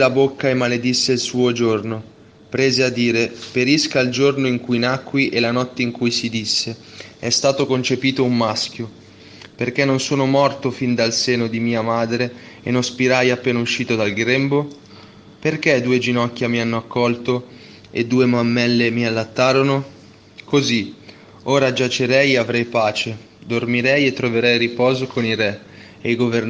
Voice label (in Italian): Lettura Breve Lodi e Prima Lettura; comunità rete di luce; sharm la casa rifugio